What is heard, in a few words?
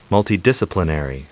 "multidisciplinary", us